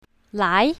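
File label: "lai2", pinyin, south, female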